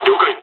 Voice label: dialogs japanese; War Thunder